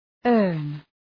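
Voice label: "earn", English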